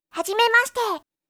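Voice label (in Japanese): "hajimemasite", Japanese; aisatsu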